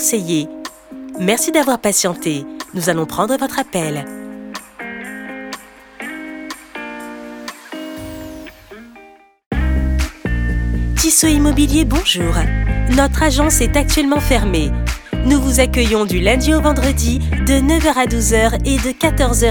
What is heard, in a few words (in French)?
Pré décroché Service transaction Fermeture IVR messagerie Accueillant; TISSOT IMMOBILIER